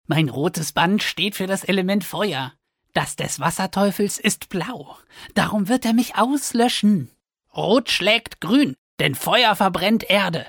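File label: German, male; voice over talent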